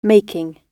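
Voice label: "making", British English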